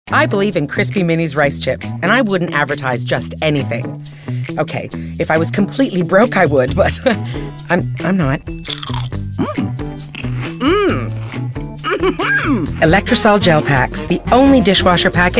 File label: commercial female